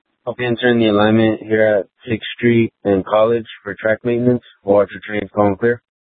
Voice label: Light Rail Ops, scanner